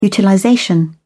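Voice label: "utilization", British English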